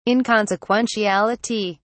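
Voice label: "inconsequentiality", British English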